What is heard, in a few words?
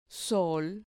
"sol", Spanish syllable